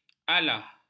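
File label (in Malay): "alah", Malay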